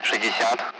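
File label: Speech, Russian, Numbers, 60; Allied Flight; DCS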